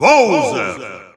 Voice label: Russian Announcer; Bowser; SSBU